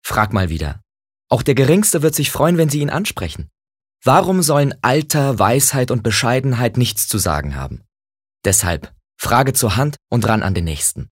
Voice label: Werbung Freundlich

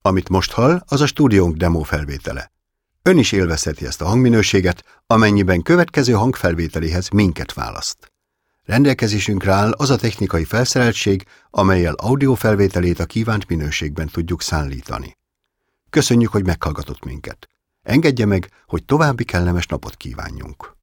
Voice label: HUN Kommentar Neutral

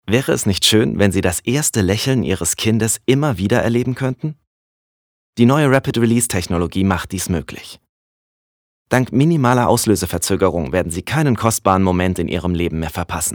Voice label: Freundlich; RapidRelease

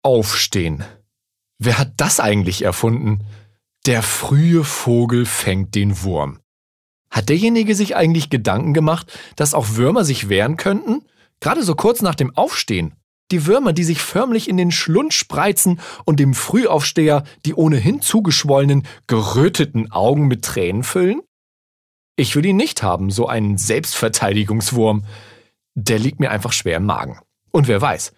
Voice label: Witzig; Der Fruehe Vogel

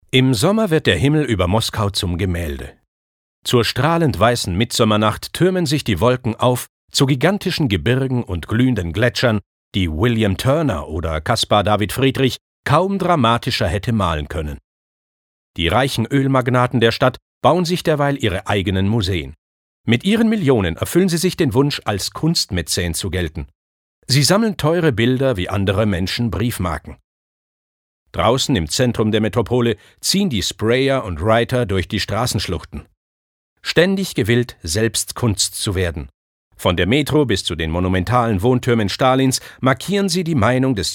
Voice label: Kommentar Neutral

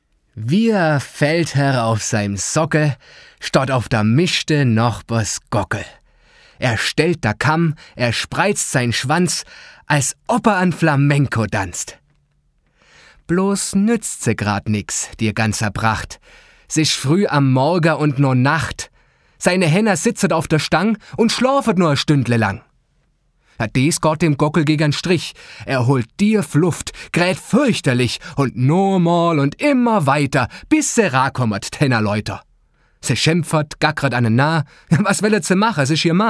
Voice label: Dialekt Schwaebisch

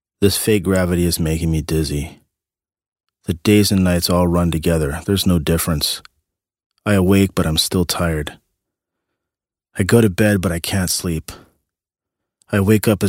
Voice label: Eng US Dramatisch

